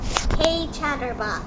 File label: hey chatterbox, en us